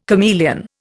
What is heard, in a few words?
"chameleon", Indian